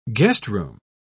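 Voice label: "guestroom", British English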